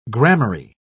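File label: "gramarye", English, British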